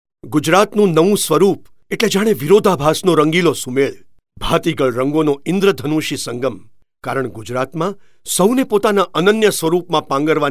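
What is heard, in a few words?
Gujarati Male Composite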